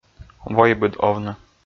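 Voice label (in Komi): войбыд овны, male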